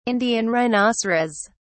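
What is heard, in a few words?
"indian rhinoceros", British English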